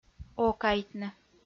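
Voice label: "окайтны" Komi-Zyrian, female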